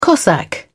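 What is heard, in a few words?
"cossack", British English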